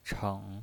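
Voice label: "cheng", Mandarin Chinese pinyin voice, third tone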